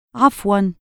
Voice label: "3afwan", Egyptian Arabic